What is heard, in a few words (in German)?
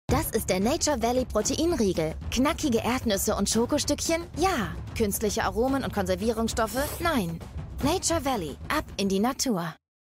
werbung; nature valley protein; abindienatur